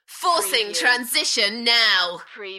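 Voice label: British female Forcing transition now Enthusiastic; beanstalk audio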